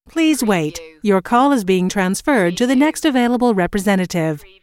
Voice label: US female phone prompt Please wait Your call is being transferred to the next available